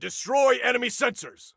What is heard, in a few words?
Attack Sensors, Soldier Male